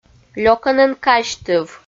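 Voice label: "лёкӧн эн казьтыв", Komi, female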